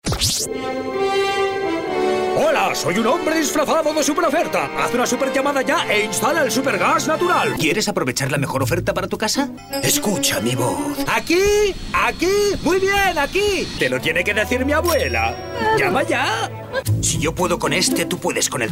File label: male spanish cartoon characters